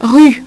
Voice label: "rue", French; HyperSound™2